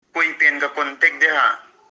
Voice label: Whom should I contact?, Kuki